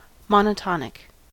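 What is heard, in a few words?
"monotonic", US English; pronunciations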